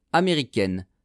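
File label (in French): "americaine", French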